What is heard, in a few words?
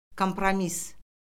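"kompromis", Azerbaijani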